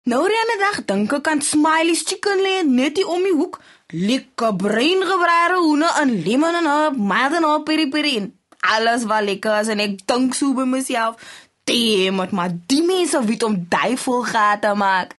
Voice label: Afrikaans Conversational

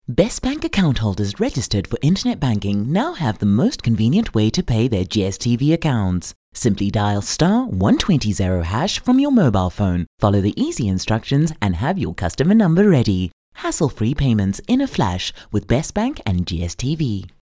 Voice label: English Promo; OSM Talent

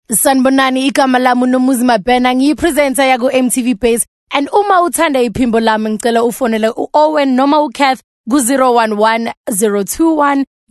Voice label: Zulu, conversational

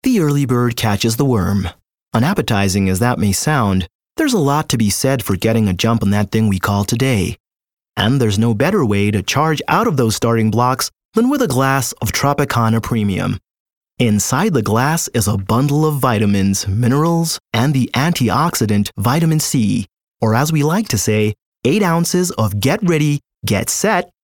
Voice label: English Accent American; OSM Talent

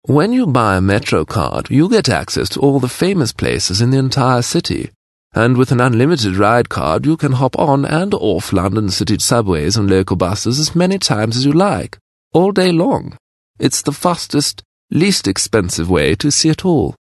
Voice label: English Character British Stiff Upperlip

